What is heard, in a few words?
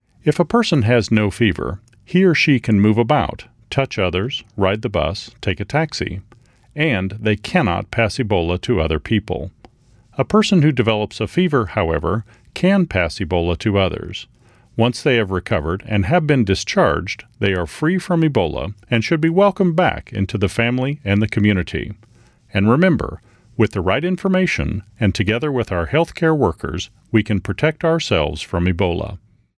english If There Is No Fever There Is No Ebola; radio-spots; vhf